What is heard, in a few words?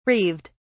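"reeved", English, uk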